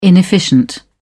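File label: "inefficient", English, British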